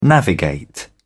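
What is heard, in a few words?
"navigate", British English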